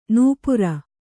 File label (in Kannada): "ನಪ್ಪುರಿ", Kannada